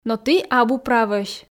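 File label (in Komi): но ті абу правӧсь, Komi, female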